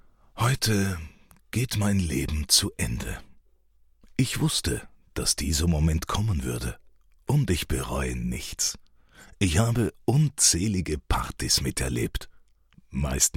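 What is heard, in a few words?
Austrian German Narration; voice demos; GoLocalise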